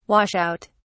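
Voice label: "wash out", British English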